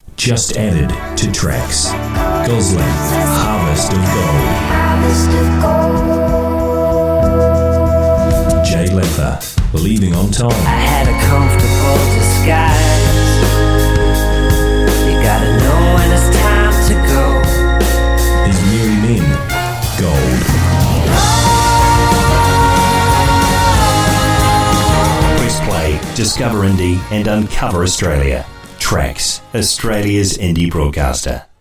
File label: RADIO IMAGING